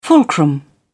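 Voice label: "fulcrum", British English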